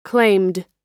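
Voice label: "claimed", American English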